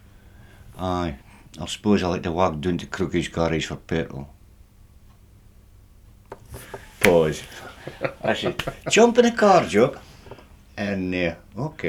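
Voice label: Northern and Insular Scots, Mid-Northern A - Peterhead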